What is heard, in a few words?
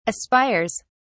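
"aspires", English, British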